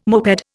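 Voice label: "moped", Indian English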